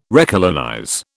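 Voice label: "recolonize", British English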